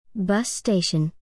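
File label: "Bus station", English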